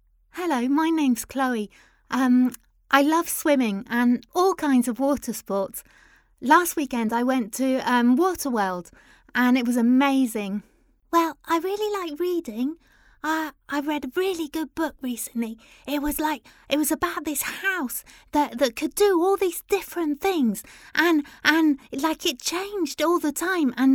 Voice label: female british english kids comercial; ideasonora